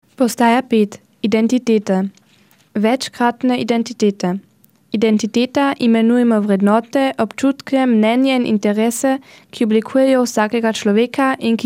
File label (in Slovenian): slovenski; Station 5 identitaeten